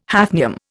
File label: "hafnium", Indian